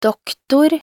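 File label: "doktor", Norwegian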